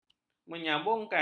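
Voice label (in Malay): "menyambungkan", Malay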